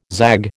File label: "zag", American